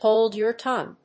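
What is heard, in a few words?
"Hold your tongue", English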